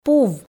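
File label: "пув", Komi, female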